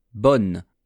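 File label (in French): "bonne", French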